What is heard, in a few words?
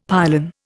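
"pylon", Indian English; Dictionary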